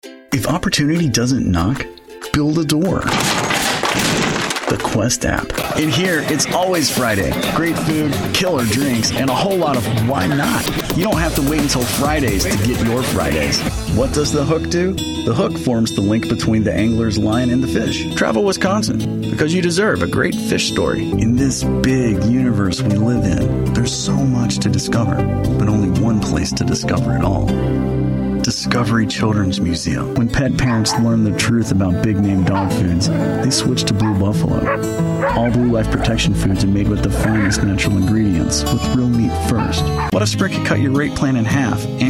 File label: male commercial